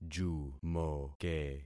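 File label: "jumoke", concatenated syllables; Affixing experiment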